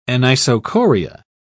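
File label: "anisocoria", British English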